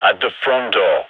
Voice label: front door, radio; GIGN

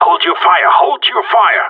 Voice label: friendly fire, radio; GSG9

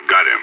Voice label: enemy down, radio; SAS

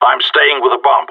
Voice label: guarding loose bomb, radio; GSG9

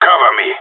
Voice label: cover me, radio; GSG9

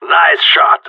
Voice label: good shooting, radio; GSG9

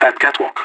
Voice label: catwalk, radio; LEET

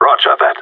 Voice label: affirmative, radio; GSG9

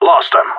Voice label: enemy lost, radio; GSG9

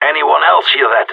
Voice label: heard something, radio; GSG9

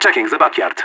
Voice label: checking backyard, radio; LEET

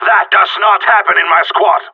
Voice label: friendly killed, radio; GSG9

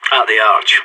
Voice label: arch, radio; SAS

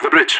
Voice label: bridge, radio; LEET

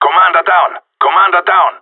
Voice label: commander down, radio; GSG9

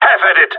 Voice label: in combat, radio; GSG9

